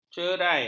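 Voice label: "cerai", Malay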